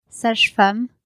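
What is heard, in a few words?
"sage-femmes", French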